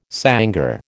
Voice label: "sangar", American

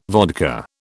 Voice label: "vodka", British

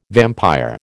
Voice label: "vampire", American

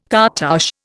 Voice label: "cartouche", Indian

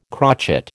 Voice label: "crotchet", American

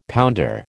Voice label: "pounder", American

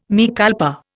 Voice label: "mea culpa", Indian

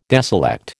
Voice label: "deselect", American

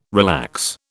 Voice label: "relax", British

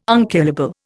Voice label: "unkillable", Indian

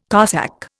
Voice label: "Cossack", Indian

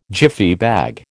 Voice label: "Jiffy bag", American

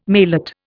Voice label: "maillot", Indian

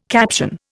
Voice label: "caption", Indian

